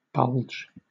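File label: "bulge", British English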